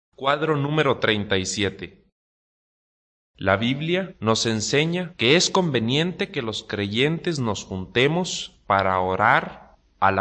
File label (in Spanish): good news spanish mexico